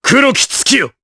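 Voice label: Vox Skill, voices, Japanese; Riheet; kingsraid audio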